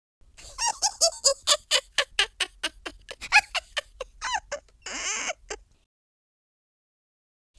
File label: happy, speech